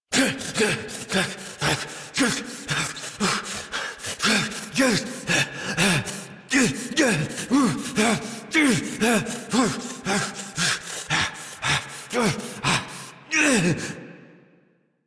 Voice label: Adult, en, Dialog; Warframe; Duviri Paradox Quest